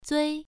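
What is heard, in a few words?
"zui1", Chinese pronunciation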